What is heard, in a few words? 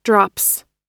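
"drops", American English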